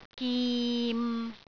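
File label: "gim6", Cantonese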